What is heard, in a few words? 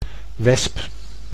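"wesp", Dutch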